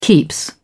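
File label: "keeps", British English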